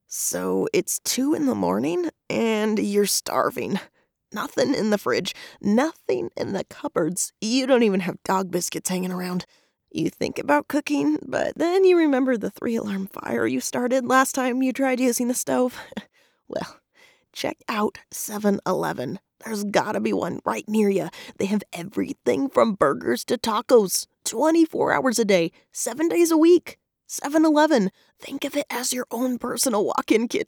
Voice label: young whisper dramatic